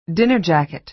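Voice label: "dinner jacket", American English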